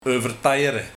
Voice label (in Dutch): "Overtaijere", Afranselen met koord